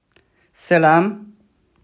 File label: "Selâm!", Crimean Tatar; Crimean Tatar page 8